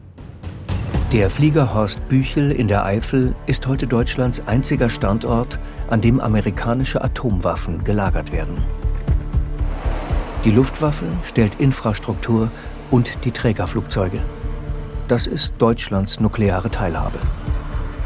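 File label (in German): Kommentar, Sprecher, Männer; ZDF Doku; Kriegstuechtig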